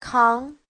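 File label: "káng", Mandarin Chinese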